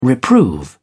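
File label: "reprove", British English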